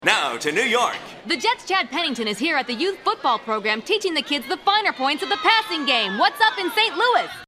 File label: Sportscaster; Voice Over Sportscaster for United Way